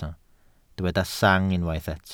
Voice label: "sang", Welsh